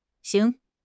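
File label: "xing2", Chinese; HSK4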